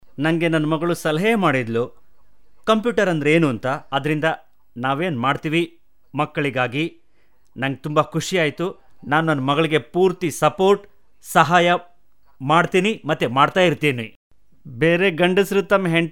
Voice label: Kannada Male

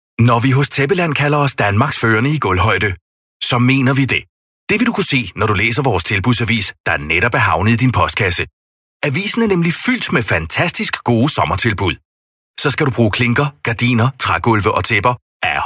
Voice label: Danish Male

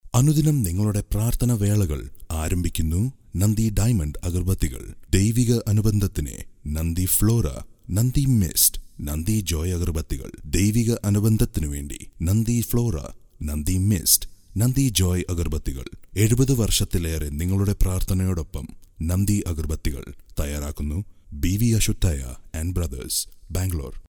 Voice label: Malayalam Male

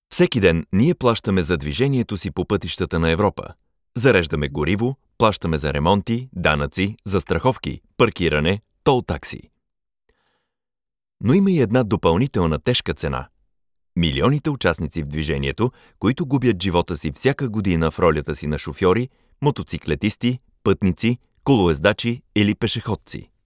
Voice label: Bulgar Male

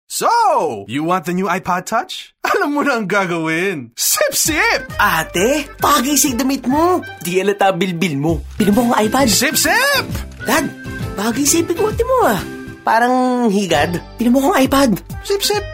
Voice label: Taglog Male

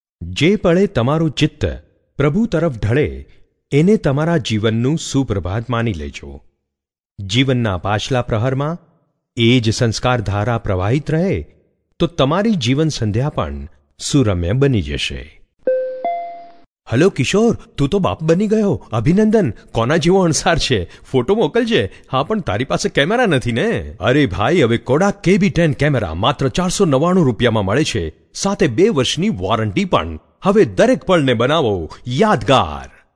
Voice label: Gujarati Male

